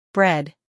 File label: "bread", English